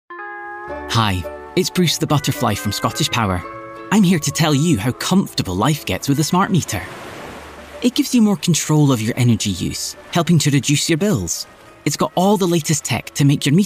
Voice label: Radio; ScottishPower; SmartMeter

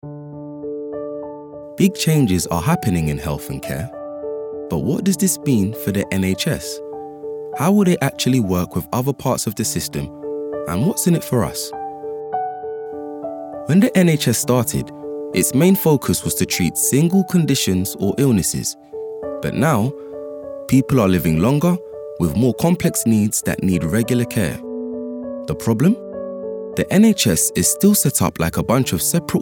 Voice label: Corporate; NHS

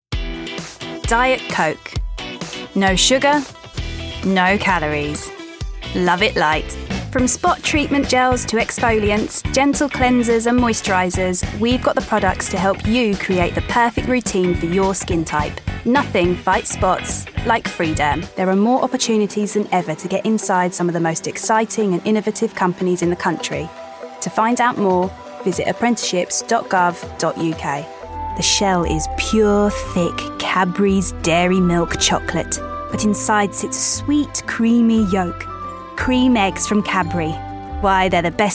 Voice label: Commercial

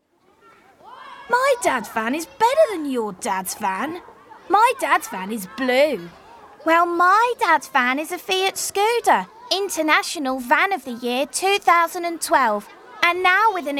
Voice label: kids

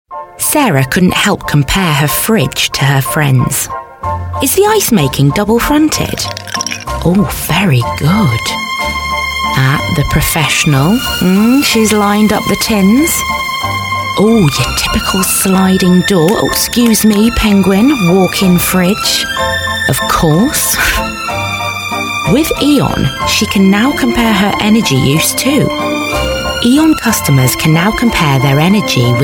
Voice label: natural

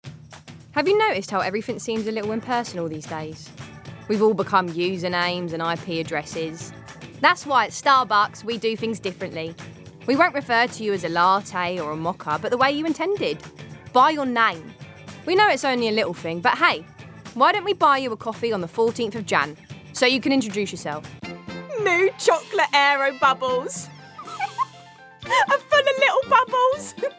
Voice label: COMMERCIAL VOICE REEL

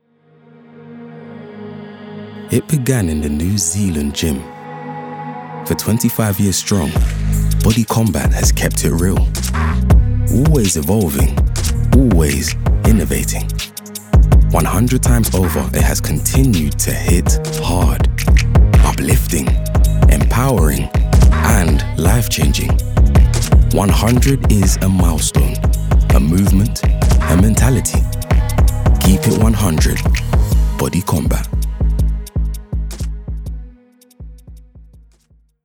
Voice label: Ad; Les Mills

